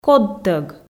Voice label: "кодтӧг", Komi